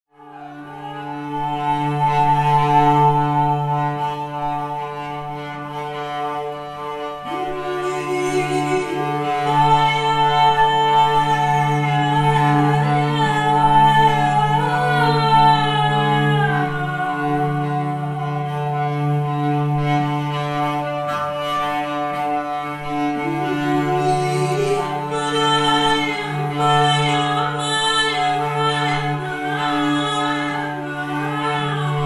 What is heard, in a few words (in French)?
voix gai; SAMHAIN